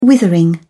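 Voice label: "withering", English, British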